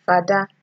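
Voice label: "fada", Nigerian Pidgin, female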